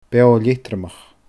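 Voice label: "beoo leatromach", Scottish Gaelic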